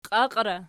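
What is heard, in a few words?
"qiaqiera" nominative, Archi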